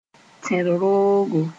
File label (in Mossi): "tẽr-roogo", moore